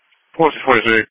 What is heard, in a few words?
EMS Dispatch